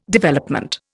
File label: "Development", Indian